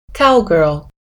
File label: cowgirl